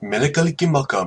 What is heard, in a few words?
christmas hawaiian